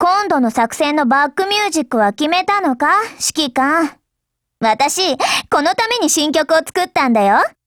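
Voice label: DIALOGUE WEDDING Japanese; AEK999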